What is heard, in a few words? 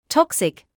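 "toxic", British English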